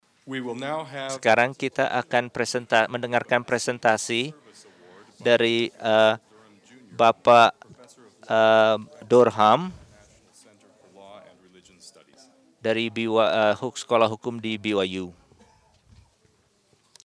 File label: Indonesian; iclrs symposium 2009